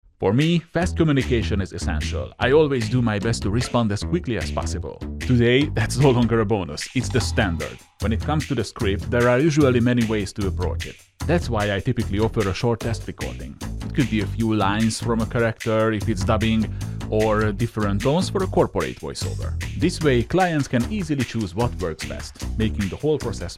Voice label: english commercial; hungarian

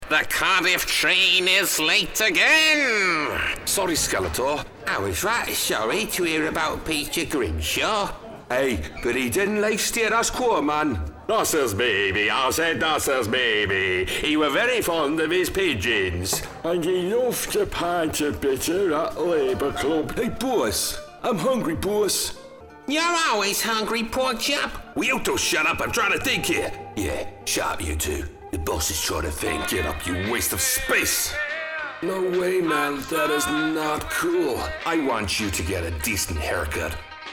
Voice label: english british animation

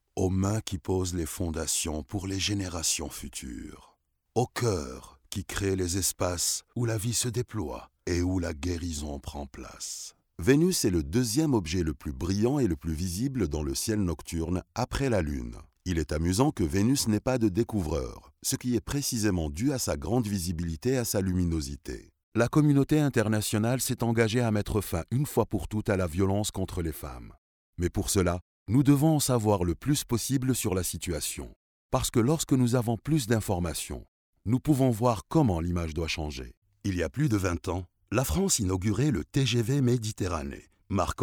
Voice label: french commercial, charming

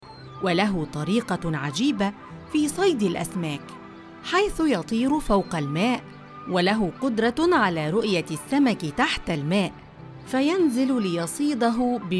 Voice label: arabic podcasts

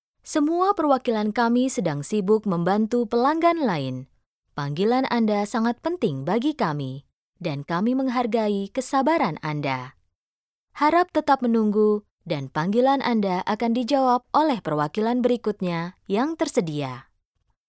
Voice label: indonesian narration

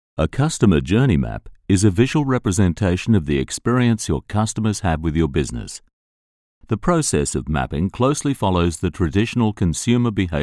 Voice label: english australian documentaries

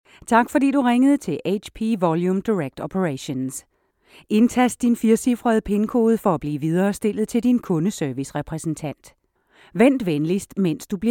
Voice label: danish ivr

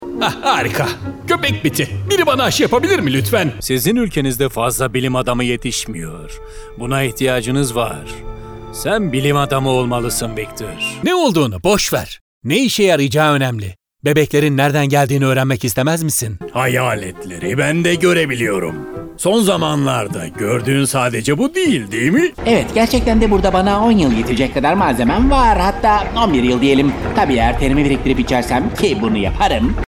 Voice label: turkish animation

